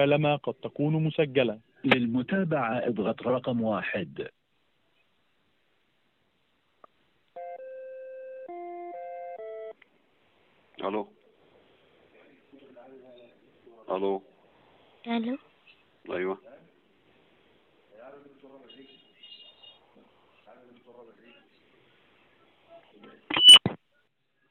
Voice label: Call recording Emergency number